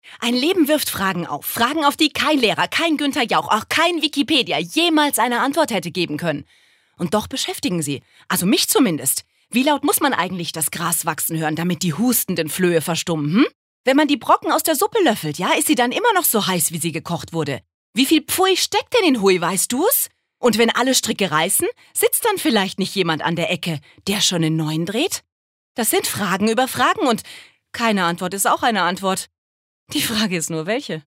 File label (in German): Schauspiel Lebendig